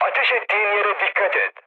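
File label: Turkish player friendly fire, mood med, speech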